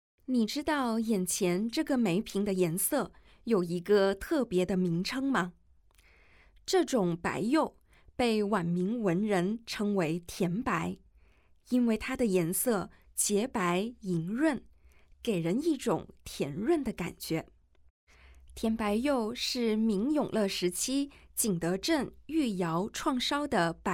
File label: Mandarin; audio guide